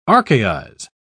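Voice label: "archaize", British English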